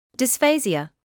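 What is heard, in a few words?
"dysphasia" English, British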